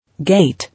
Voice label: "Gate", English

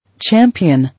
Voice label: "Champion", English

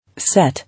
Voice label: "Set", English

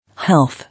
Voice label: "Health", English

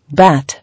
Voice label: "Bat", English

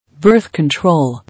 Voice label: "Birth control", English

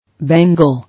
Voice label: "Bangle", English

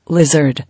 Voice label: "Lizard", English

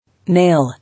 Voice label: "Nail", English

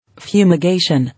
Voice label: "Fumigation", English

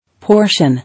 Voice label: "Portion", English

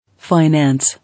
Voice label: "Finance", English

